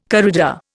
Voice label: "corrida", Indian

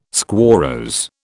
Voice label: "squarrose", British

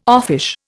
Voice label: "offish", Indian

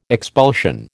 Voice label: "expulsion", American

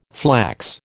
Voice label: "flax", American

